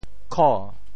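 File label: "kho2", Teochew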